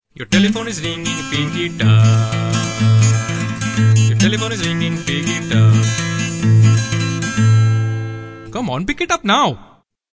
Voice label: indian phonecall